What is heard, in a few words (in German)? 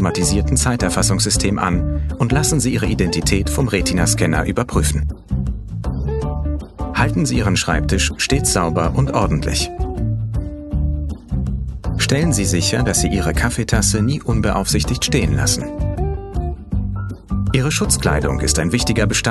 DE E Learning Nano Sicherheit